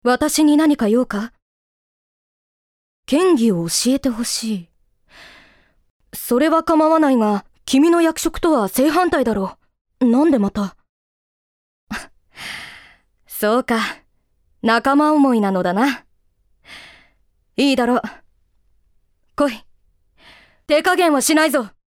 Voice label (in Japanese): アニメ